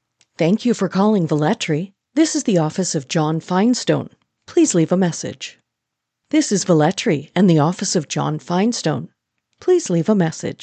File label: Voicemail